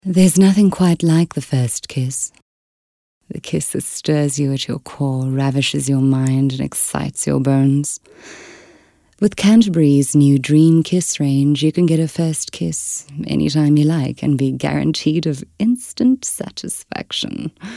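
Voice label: English Intimate South African Neutral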